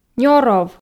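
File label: "нёров", Komi, female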